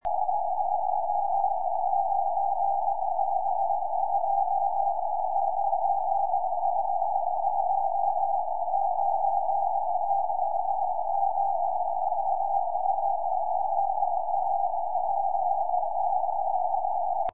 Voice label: 2m, tropo